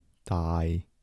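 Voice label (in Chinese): 际-台城-男, 台山话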